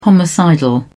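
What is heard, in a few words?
"homicidal", British English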